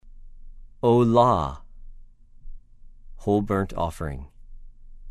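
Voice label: "עֹלָה", Hebrew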